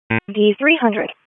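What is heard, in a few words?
Police Dispatch; wake; p25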